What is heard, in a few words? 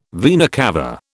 "vena cava", British